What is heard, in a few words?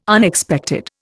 "Unexpected", Indian